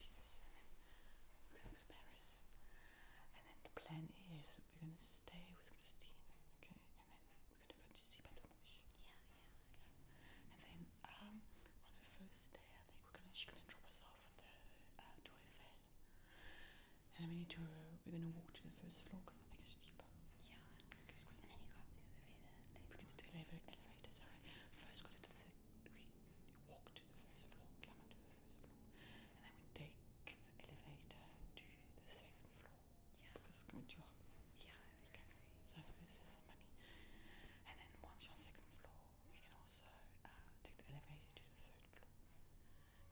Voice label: Whisper Ambience